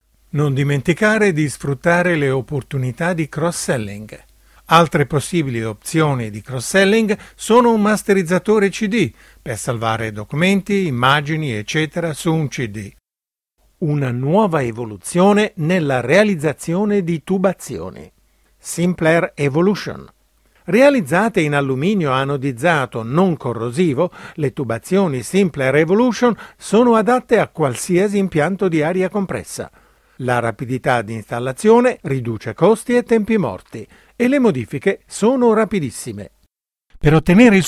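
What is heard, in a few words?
Italian, male, corporate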